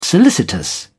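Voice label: "solicitous", English, British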